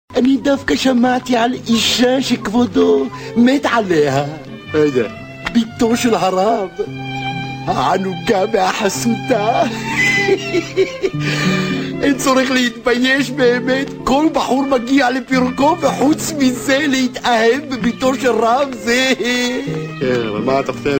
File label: Funny Dialogue